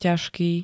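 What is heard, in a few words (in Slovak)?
"ťažký", Slovak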